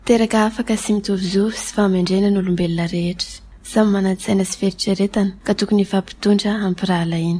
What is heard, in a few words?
Malagasy